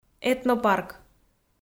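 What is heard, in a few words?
"этнопарк", Komi, female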